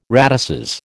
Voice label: "radices", American